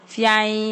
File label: good evening, ewe